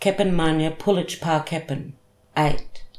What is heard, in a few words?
"kepin-manyə-pulety-pa-kepin" eight